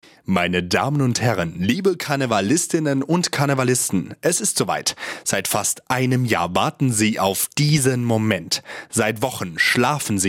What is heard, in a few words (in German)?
de ansage, deutsch maenner; sprecher